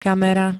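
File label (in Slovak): "kamera", Slovak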